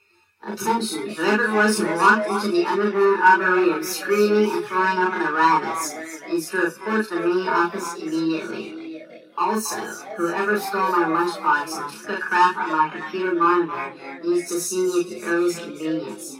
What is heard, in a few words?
Announcement